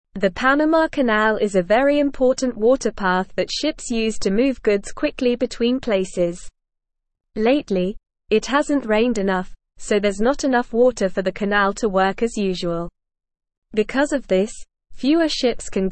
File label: English Lower Intermediate NORMAL Reading; Panama Canal Needs More Water for Ships